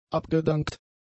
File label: "abgedankt", German; adjectives